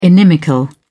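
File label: "inimical", British English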